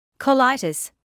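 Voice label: "colitis" English, British; kəˈlaɪ.təs; kuh-LIGH-tuhss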